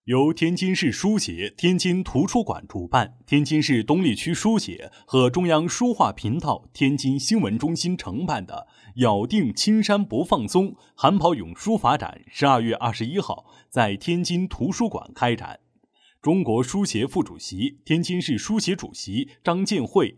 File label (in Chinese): 新闻样音稳重大气